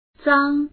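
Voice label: "zang1", Mandarin Chinese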